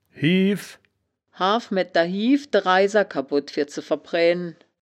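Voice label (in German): "hiew", wittlicher woerterbuch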